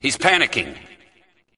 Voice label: hes panicking